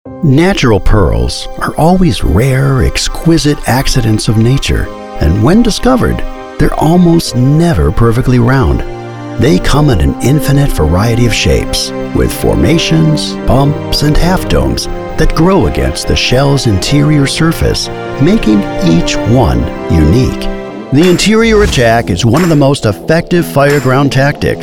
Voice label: Narration